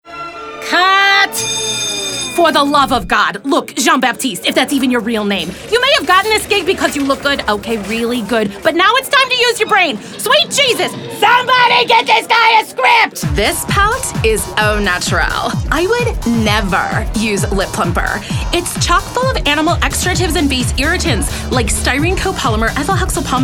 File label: Animation Character Voices